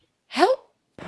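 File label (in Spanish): Voice Acting, Spanish, female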